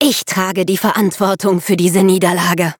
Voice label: defeat, de; Cookie Run

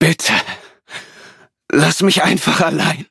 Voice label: loading, de; cookie run

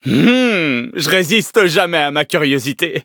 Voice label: levelup, fr; cookie run

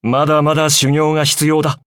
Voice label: levelup ja

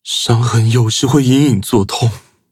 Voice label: loading, zh-hant; cookie run

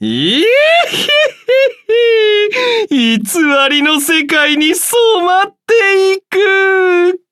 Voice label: level up, ja; Cookie Run

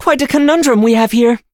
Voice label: defeat, en; cookie run

